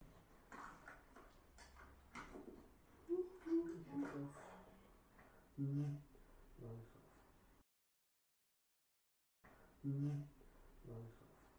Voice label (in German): EVP hmm leiser